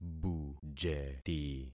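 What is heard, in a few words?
"bujeti", concatenated syllables; Affixing experiment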